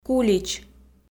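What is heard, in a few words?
"кулич", Komi, female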